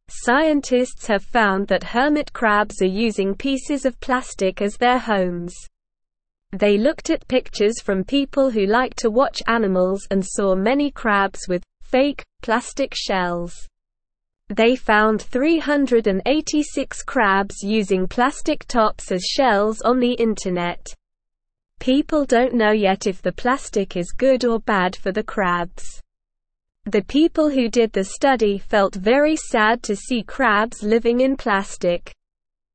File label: English Lower Intermediate SLOW Reading; Hermit crabs live in plastic instead of shells; English Newsroom